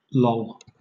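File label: "loll", British English; pronunciations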